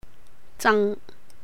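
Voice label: "jàng", Cantonese; increase; 增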